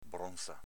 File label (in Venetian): "bronza", Veneto